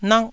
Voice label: "nak1", taiwanese

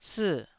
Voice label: "sii4", taiwanese Syllables

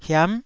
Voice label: "hiam9", taiwanese

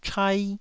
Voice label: "chai1", taiwanese

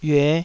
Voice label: "yue5", taiwanese

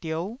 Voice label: "diou9", taiwanese syllable

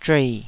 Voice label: "zhuei3", Taiwanese syllable

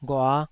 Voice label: "ghua5", Taiwanese syllable

